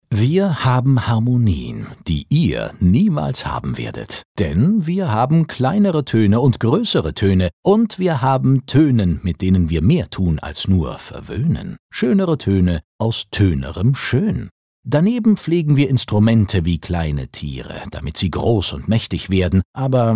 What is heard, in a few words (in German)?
Schauspiel Freundlich